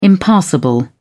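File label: "impassable", British English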